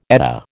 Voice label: "Edda", American